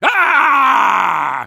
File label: Soldier tank war cry